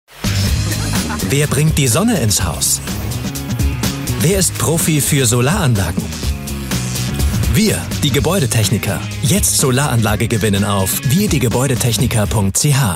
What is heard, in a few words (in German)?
Werbung klar; Suissetec